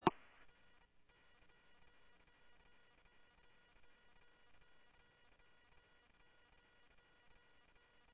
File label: Sheriff-WestCnty, scanner audio